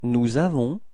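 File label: "avoir nous", French; frans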